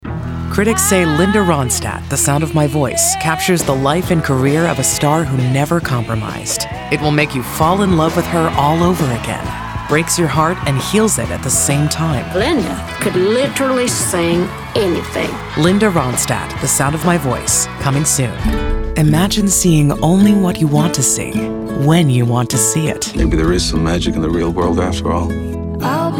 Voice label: women trailers; Conversational and funny. Has gravitas, incredible character range, and great comedic timing. Gender neutral.

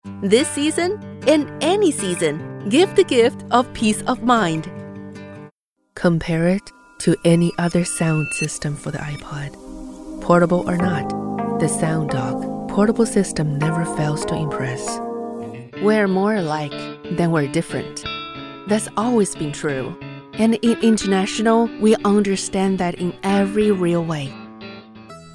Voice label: chinese mandarin accented english

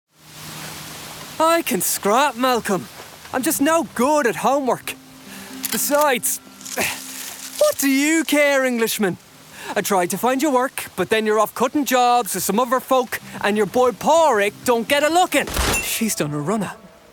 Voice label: men video games; Youthful, versatile voice with a talent for accents & characters. Irish (Naive), British Standard, and American Standard.

